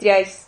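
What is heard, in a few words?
"riais", Spanish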